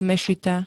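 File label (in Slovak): "mešita", Slovak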